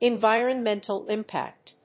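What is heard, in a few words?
"Environmental Impact", English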